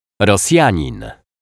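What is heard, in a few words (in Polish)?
"Rosjanin", Polish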